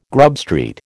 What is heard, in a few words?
"Grub Street", American